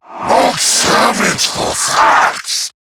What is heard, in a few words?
Player Spotted, en; Zariman Wraith